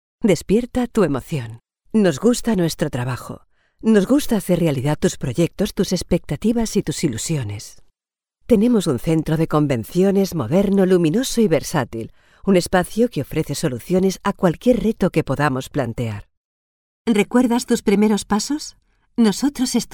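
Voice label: Spanish Spain female narrative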